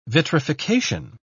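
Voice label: "vitrification", English, British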